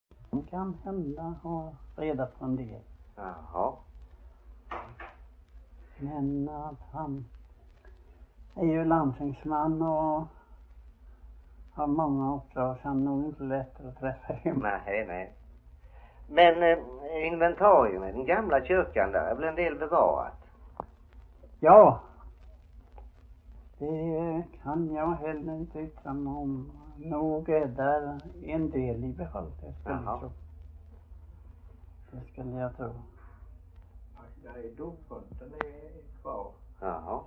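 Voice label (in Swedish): Frillesås Halland, Svenska sagor dialekter; Folkminnen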